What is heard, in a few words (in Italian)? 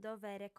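"dovere", lingua sarda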